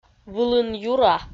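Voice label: "вылын юра", Komi, female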